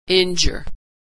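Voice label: "injure", American English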